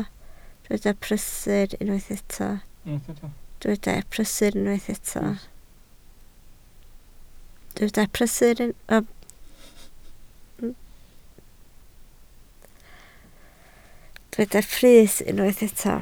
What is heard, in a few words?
"brys", Welsh